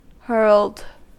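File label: "hurled", US English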